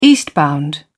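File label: "eastbound", British English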